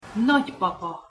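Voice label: "nagypapa", Hungarian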